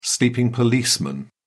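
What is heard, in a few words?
"sleeping policeman", British English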